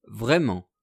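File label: "vraiment", French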